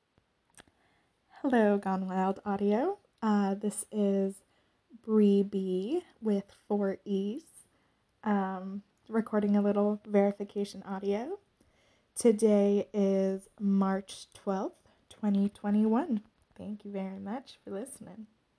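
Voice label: Female Verification Request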